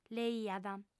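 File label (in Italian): "leiat", lingua sarda, fem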